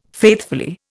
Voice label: "faithfully", Indian